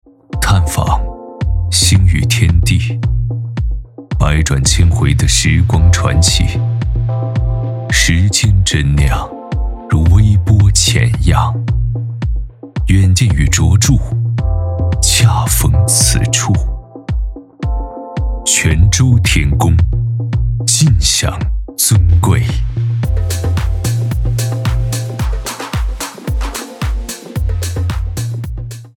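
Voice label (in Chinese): 广告类酒吧; 天宫壹号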